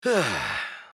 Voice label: Kai Emote Negative